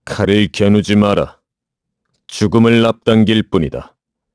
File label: vox, kr; Clause ice; kingsraid